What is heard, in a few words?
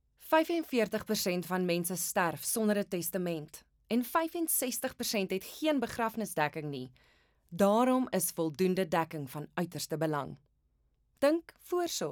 CORPORATE AFRIKAANS